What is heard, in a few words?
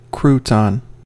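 "crouton", US English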